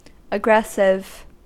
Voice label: "aggressive", us